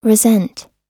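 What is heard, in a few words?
"resent", US English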